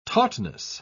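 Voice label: "tautness", British English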